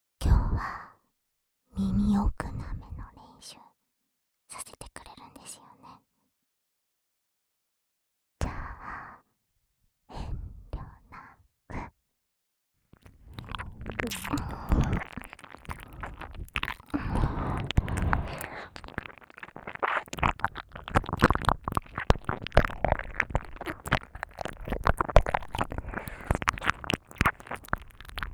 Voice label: 両耳ぐちゅぐぽ練習トーク; 両耳奥ぐちゅぐぽ+乳首攻め; 貴方はお姫様の暇つぶし玩具～囚われのお姫様～

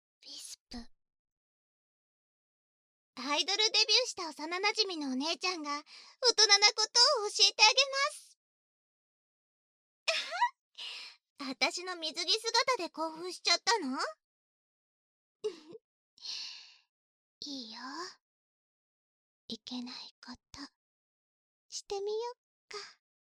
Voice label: タイトルコール

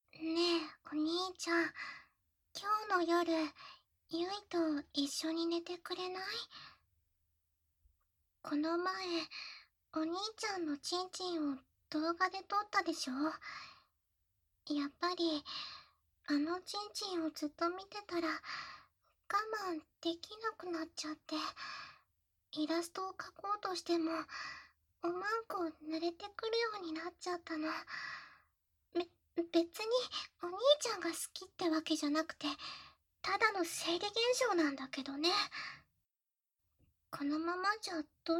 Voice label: 耳舐め 効果音なし, ASMR; 妹に添い寝しながら耳舐めパンツ手コキ

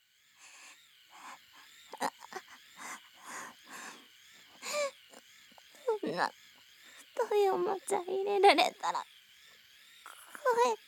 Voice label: 心が折れる音, ASMR

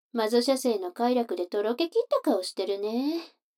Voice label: 女の子台詞, ASMR

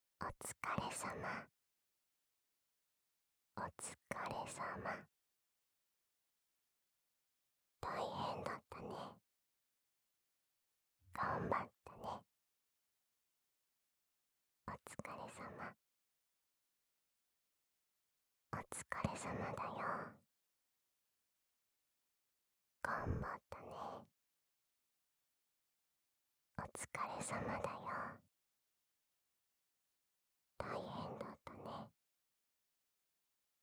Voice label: 囁き お疲れ様 頑張ったね

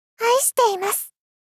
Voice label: "愛しています・・・！" 少女×中音域

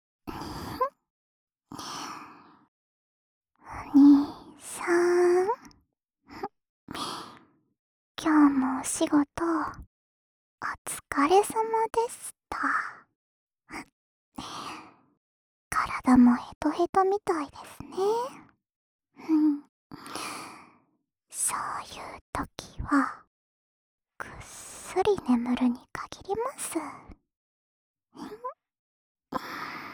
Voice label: 安眠用添い寝ボイス（右耳）, ASMR

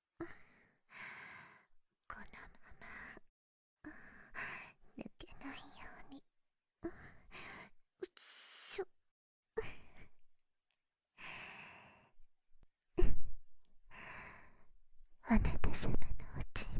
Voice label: 添い寝 挿入したまま甘い囁き寝かしつけ, バイノーラルマイク 効果音なし

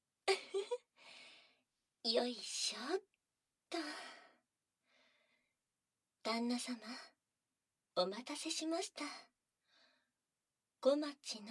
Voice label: 耳マッサージ（バイノーラルマイク収録 効果音あり大きめ）

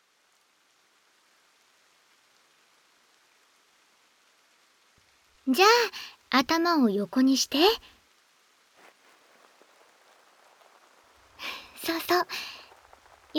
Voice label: 耳かき, ASMR; ミスラ; 反転

